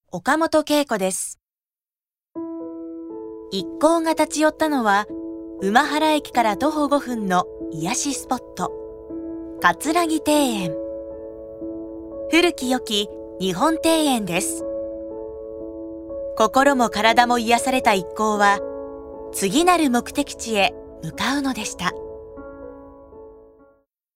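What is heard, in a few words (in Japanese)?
narration ノーマル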